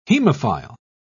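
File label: "hemophile", British English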